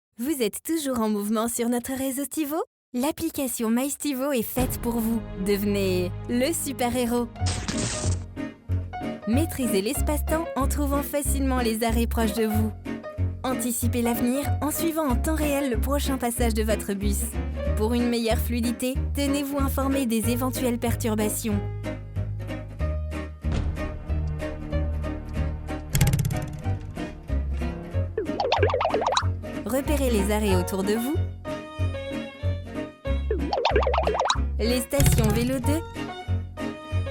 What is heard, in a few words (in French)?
Institutionnel Voix Off femme; Voix enthousiaste, impliquée; MyStivo